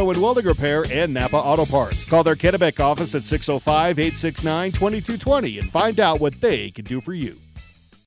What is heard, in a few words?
Telephone; Kennebec